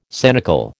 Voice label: "sanicle", American

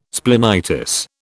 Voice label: "splenitis", British

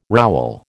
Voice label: "rowel", American

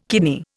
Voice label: "kidney", Indian

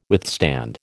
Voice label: "withstand", American

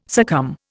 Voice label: "succumb", Indian

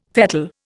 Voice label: "fettle", Indian

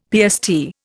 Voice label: "PST", Indian; Dictionary

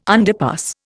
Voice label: "underpass", Indian

